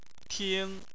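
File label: "ting", Mandarin Chinese, first tone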